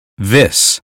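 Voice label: "this", American English; 英语音标发音（剑桥版）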